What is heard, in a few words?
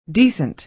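"decent", American English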